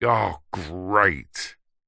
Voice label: human male grumpy angry frustrated says oh great; zapsplat